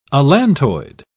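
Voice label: "allantoid", English, British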